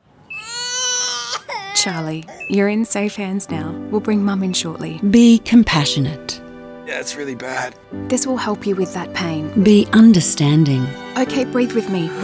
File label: radio